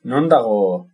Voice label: where's the, basque

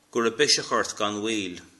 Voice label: get well soon, Irish

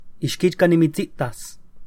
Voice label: goodbye nahuatl

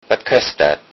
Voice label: how much, lowsaxon

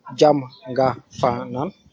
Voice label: good morning, wolof

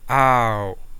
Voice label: "no", Coastal Kadazan

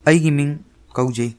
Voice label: "my name is", manipuri

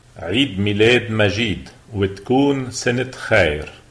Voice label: christmas lebanese

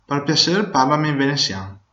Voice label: speak to me in, venetian

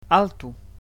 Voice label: "stop", Catalan

